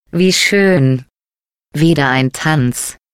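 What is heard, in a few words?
taunt robot arm ripple, German; GLaDOS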